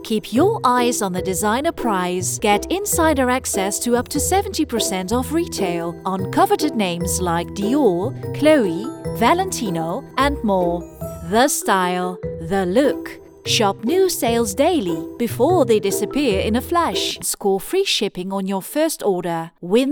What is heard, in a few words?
ENGLISH Radio commercial